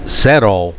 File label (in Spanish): "cero", spanish